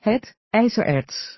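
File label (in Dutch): "het ijzererts", Dutch; Natuur samenvatting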